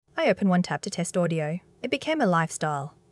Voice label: Bright and approachable, useful when the product should sound friendly without getting silly.; brightwarmapproachable